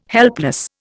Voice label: "helpless", Indian

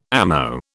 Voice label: "ammo", British

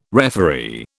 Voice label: "referee", British

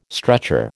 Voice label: "stretcher", American

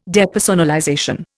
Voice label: "depersonalization", Indian

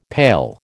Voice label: "pail", American

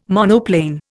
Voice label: "monoplane", Indian

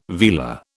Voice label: "vela", British

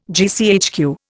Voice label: "GCHQ", Indian

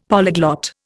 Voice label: "polyglot", Indian; Dictionary

